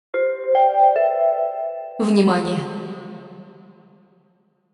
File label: attention, Announcements; OldThink